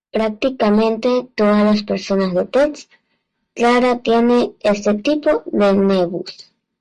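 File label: "tez", Spanish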